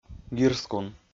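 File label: "гирскун", Komi, male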